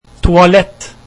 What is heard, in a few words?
toilet, norwegian